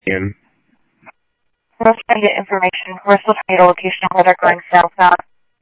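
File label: Police Department, scanner audio; H-Scottsdale; ScottsdalePolice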